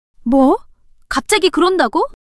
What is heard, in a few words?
surprise, monologue